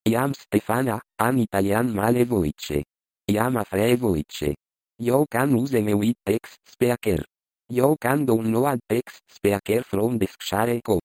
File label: italian male